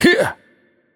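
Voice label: Attack Heavy, Korean; ko KR; VO; bloom minigame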